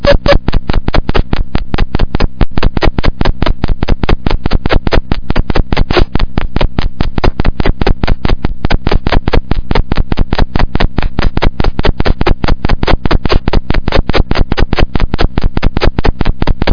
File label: Fire, dispatch; Clermont